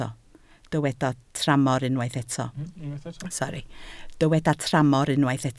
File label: "tramor", Welsh